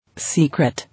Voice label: "Secret", English